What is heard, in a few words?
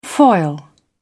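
"foil", British English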